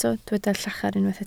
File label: "llachar", Welsh